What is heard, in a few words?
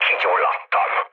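Hungarian on fire mood low, speech; War Thunder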